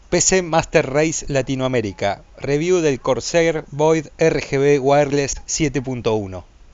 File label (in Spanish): Test de Sonido Corsair Void Wireless